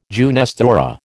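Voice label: "jeunesse dorée", American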